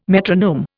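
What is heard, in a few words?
"metronome", English, Indian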